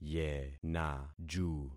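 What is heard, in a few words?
"yenaju", concatenated syllables; Affixing experiment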